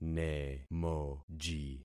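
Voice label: "nemoji", concatenated syllables; Affixing experiment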